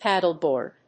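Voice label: "paddleboard", English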